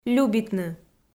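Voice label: "любитны" Komi, female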